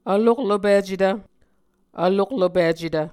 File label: "vloklopicetv", Muscogee